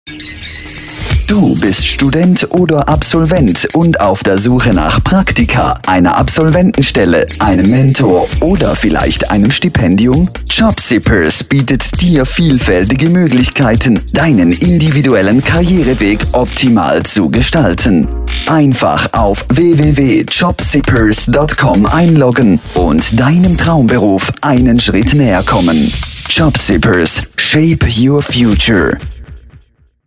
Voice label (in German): Spot DE; Jobzippers; sprecher; profi